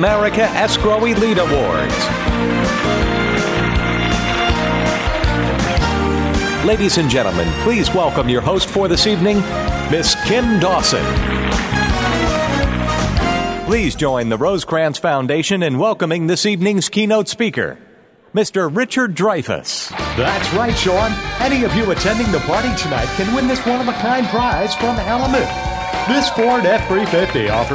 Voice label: Live Announcer

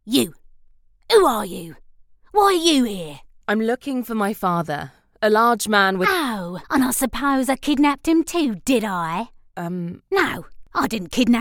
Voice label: english London

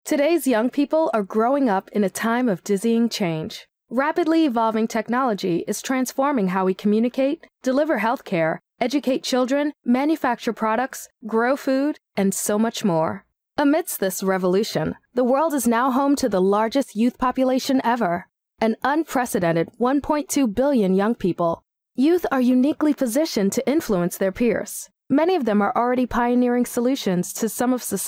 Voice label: Corporate Narration Dry

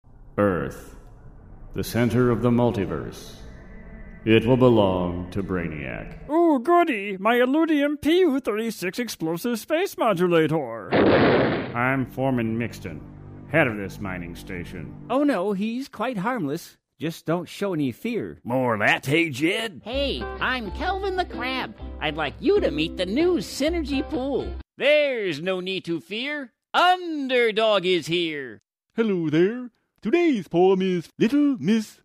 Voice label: Cartoon Voices

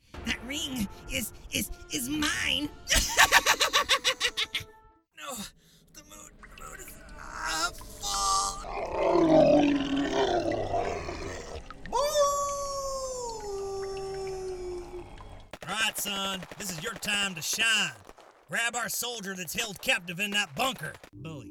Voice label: Video Game Voices

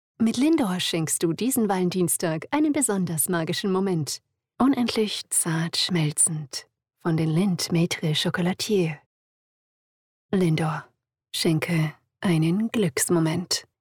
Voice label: Commercial Dry; Lindt

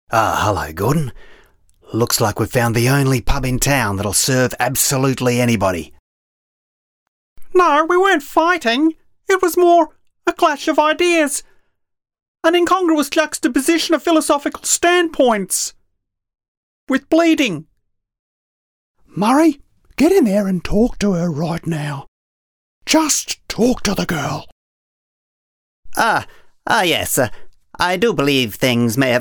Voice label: Character Voices

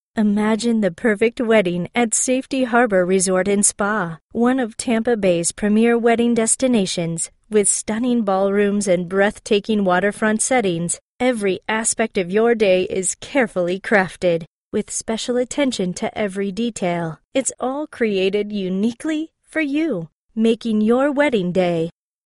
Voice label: wedding promo audition

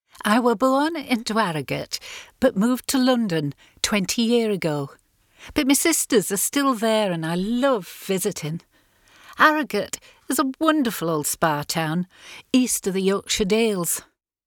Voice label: yorkshire

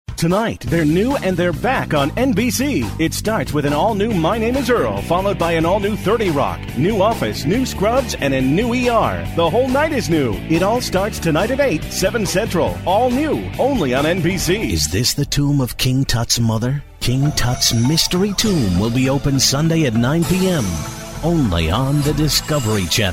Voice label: General Commercial

